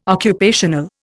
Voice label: "occupational", Indian